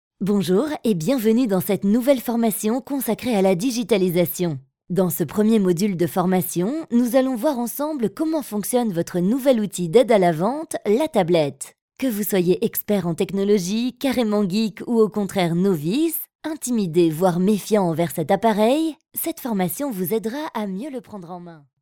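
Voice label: French, female, explainer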